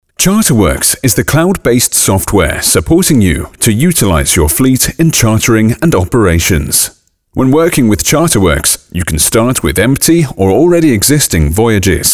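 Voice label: male, British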